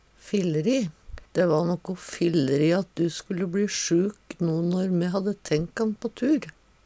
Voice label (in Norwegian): filleri, fildri, Numedalsmål; Dæ va nokko filleri at du vart sjuk no når me hadde tenkt kan på tur.